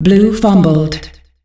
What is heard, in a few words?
Blue Pass Fumbled, announcer female; Unreal Tournament; ut2004